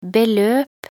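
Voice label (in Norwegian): "beløp", Norwegian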